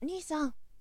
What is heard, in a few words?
nisan, shonen